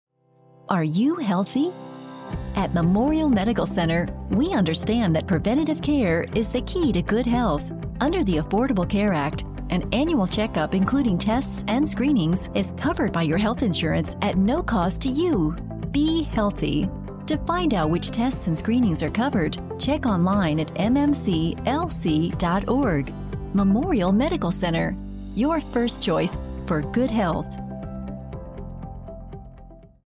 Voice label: Healthcare Spot